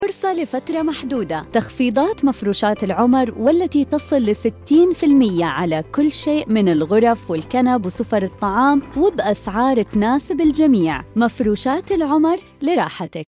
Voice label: voiceover